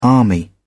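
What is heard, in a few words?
"army", British English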